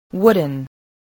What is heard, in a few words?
"wooden", spelling